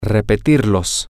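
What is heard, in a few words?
to repeat it, spanish, male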